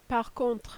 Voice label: "par contre", French

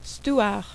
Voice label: "steward", French

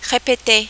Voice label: "repetez", French

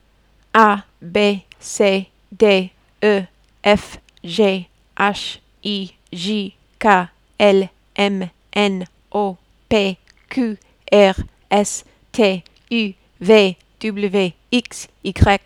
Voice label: abc, French

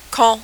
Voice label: "quand", French

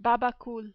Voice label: "baba cool", French

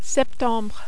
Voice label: "septembre", French

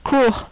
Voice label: "court", French

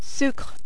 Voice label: "sucre", French

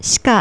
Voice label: "shika", Japanese

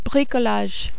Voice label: "bricolage", French

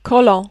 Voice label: "collant", French

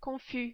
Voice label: "confus", French

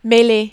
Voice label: "meler", French

